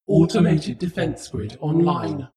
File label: female fighting status